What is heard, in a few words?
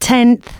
announcements female